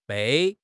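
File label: "bei4", Mandarin Chinese; HSK; HSK3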